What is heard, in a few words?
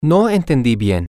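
Spanish, male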